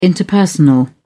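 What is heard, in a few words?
"interpersonal", British English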